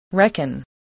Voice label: "reckon", voice, english

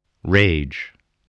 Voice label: "rage", english

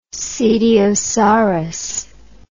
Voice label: "cetiosaurus", English

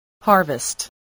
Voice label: "harvest", English, spelling

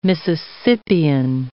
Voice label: "Mississippian", English

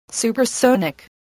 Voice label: "supersonic", English

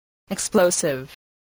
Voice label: "explosive", spelling

